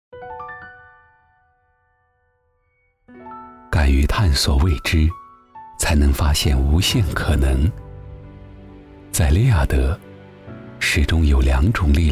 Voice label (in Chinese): 品牌广告; 利亚德